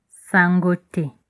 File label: fine, Lingala; Sango te; (Nothing's new)